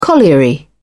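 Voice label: "colliery", British English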